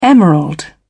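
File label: "emerald", British English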